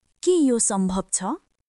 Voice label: Nepali, basic phrases